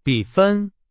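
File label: Chinese; hsk4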